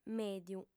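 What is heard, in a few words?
"mèdiu" Sardinian, female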